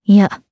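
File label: Deny, Japanese; voices; heroes; Ripine; kingsraid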